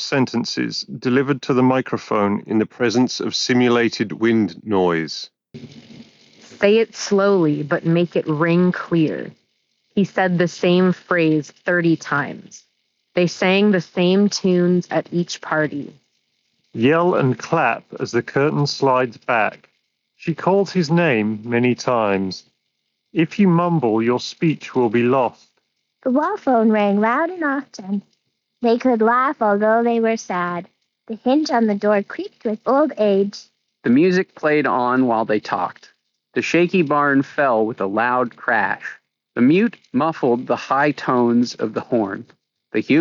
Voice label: Bose QuietComfort Ultra 2 windy